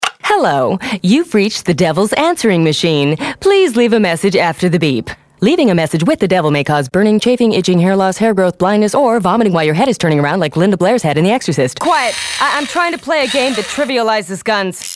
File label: female animation